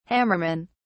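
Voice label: "hammerman", British English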